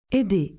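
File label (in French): "aider", French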